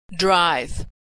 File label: verb "drive", pronunciation